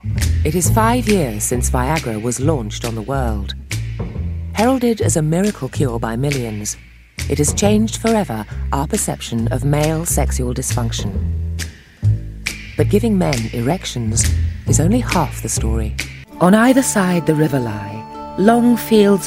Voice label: Narration

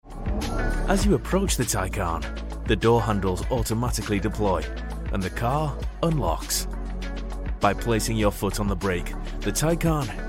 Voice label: e-learning

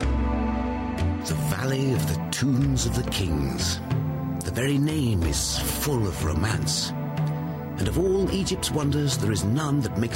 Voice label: Narration

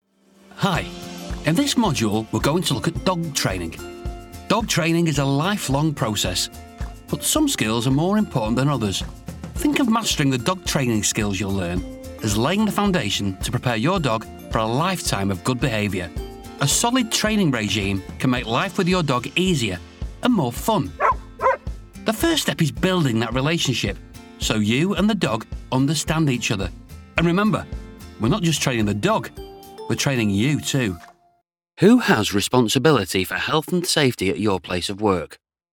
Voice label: E-learning

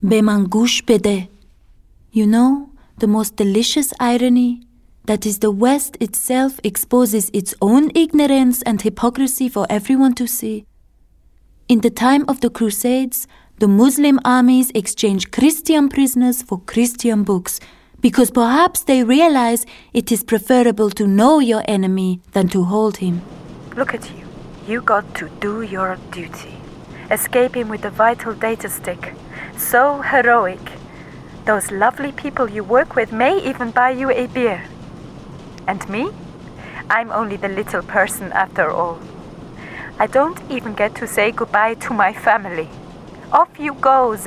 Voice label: Arabic Farsi Middle East Accent

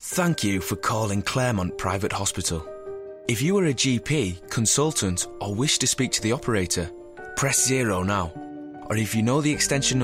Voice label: OnHold

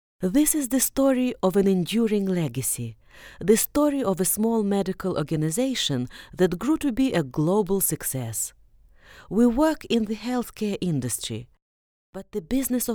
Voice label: English Corporate

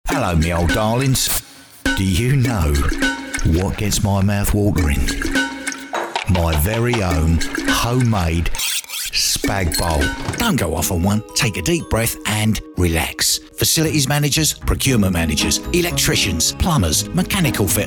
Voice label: Commercial

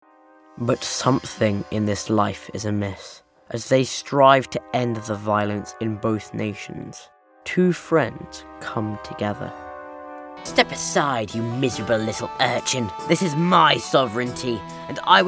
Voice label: Character

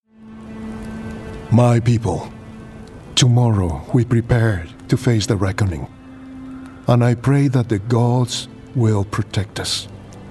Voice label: Gaming English

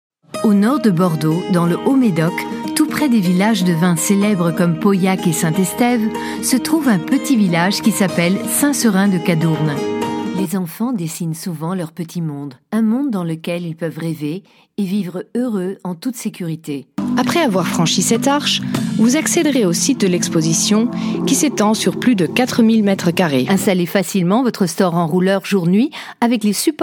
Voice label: French Corporate

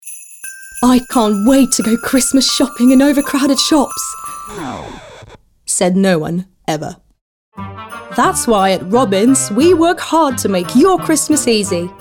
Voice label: Commercial Voice; voice reel

